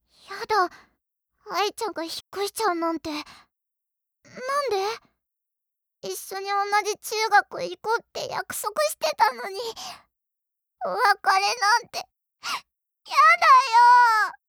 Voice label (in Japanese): 小学生